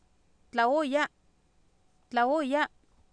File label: "tlaOoyA", Oapan, female; Oapan minimal pairs 2000